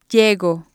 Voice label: "llego", spanish